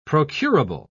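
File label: "procurable", English, British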